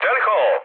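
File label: Serbian enemy detected mood med; War Thunder; speech srb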